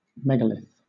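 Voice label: "megalith", British English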